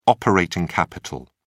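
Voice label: "operating capital", British English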